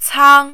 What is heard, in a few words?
"cang1", mandarin